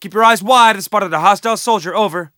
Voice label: spot; bf3